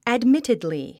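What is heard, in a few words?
"admittedly", English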